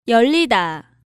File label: "yeollida", Korean